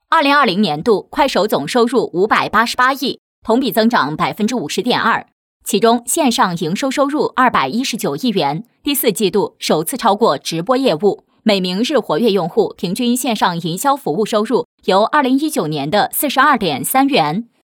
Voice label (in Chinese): 女 新闻